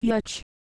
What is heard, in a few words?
ooff, Microsoft Mary, Worms speechbanks